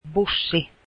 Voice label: bus, finnish